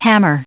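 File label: "hammer", US English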